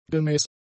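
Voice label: "gemäß", German; adjectives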